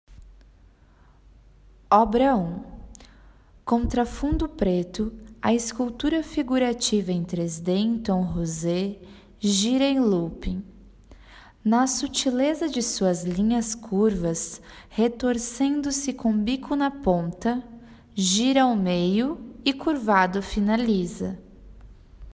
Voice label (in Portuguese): Audiodescricao; Exposição; Galeria Virtual